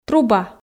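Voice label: "труба", Komi, female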